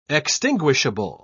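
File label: "extinguishable", British English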